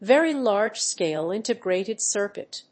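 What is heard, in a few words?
"very large scale integrated circuit", English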